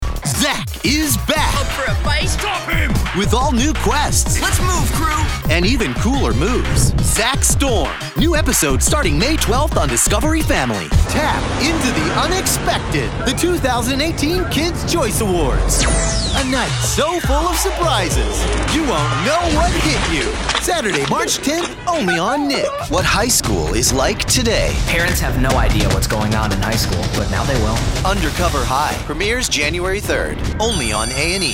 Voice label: PROMOS

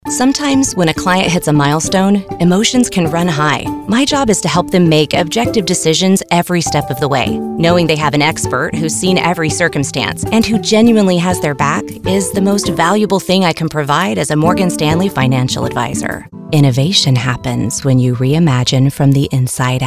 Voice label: English Commercial

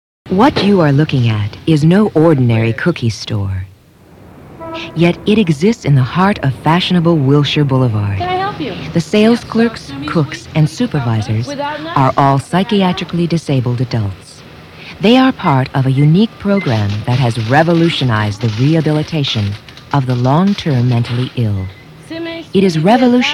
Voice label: Narrations

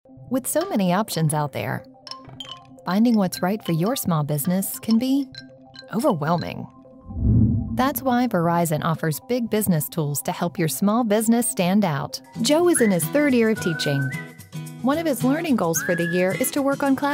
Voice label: CORPORATE

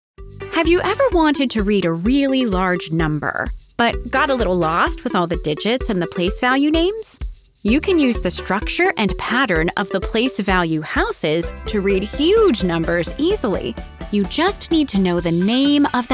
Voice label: CHILDRENS NARRATION

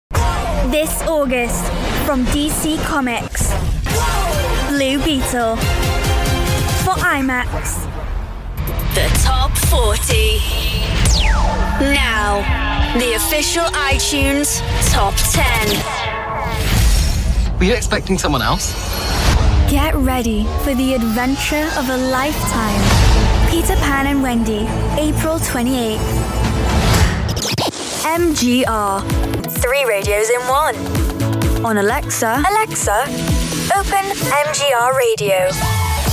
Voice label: Trailers Promo Imaging

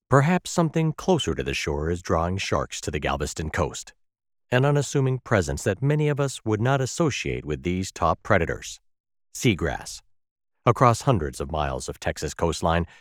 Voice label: Docu

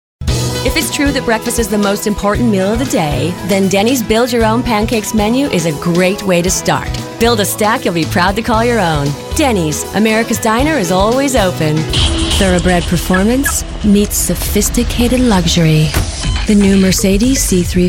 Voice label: Commercial

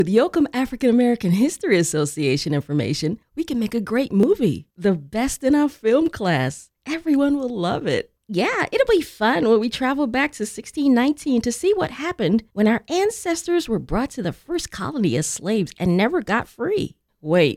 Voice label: ELearning Characters; Harriet and Roxanne Talk History

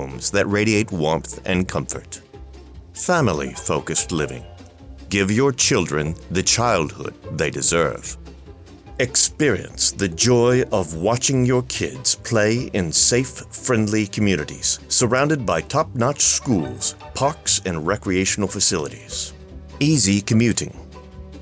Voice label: Transatlantic Read Suburb TV Commercial

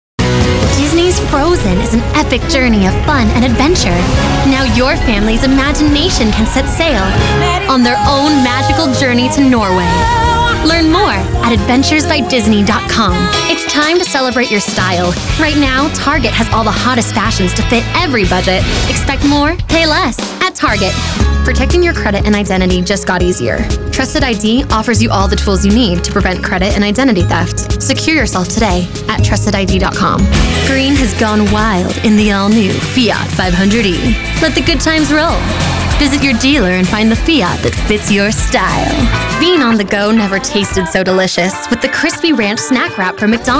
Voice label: Commercials

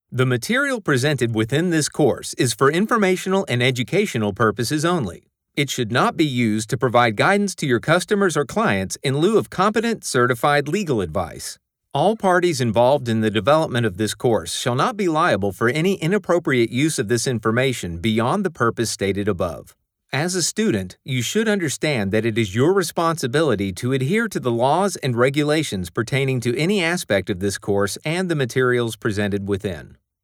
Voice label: E-learning

